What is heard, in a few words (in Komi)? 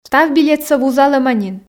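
став билетсӧ вузалӧма нин, Komi, female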